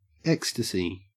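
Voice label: "ecstasy", Australian English; pronunciation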